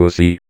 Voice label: Vocoder Words; Vengeance Samples